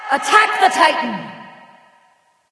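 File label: Attack male Buffed; smite; nike; voicepack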